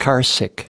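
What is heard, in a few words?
"carsick", US English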